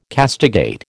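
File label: "castigate", American English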